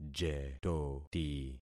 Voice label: "jetoti", concat syllables; Affixing experiment